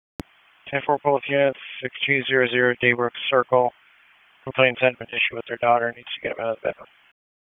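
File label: Police Southwest District Dispatch, p25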